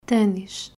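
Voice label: "Tenis", Portuguese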